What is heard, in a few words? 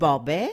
"bubbe", Yiddish